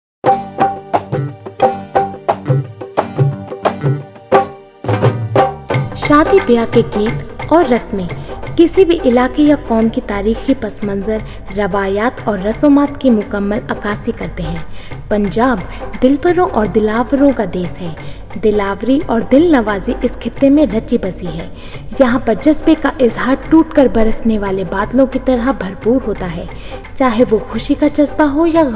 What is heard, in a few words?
Urdu; foreign languages